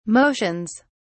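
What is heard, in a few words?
"motions", British English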